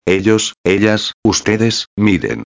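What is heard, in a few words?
"miden", Spanish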